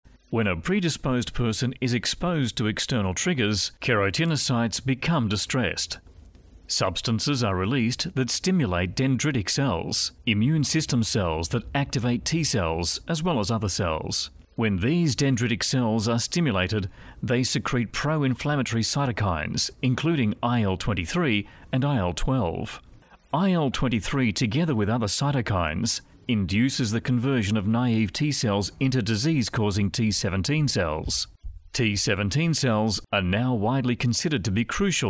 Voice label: Foreign Voices Australian Male eLearning

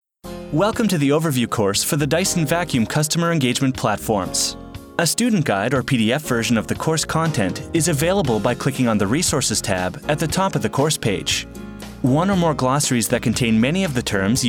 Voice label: eLearning, Male; Narration, eLearning

